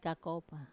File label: "kakopa", earth, wamesa